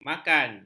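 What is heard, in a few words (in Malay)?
"makan", Malay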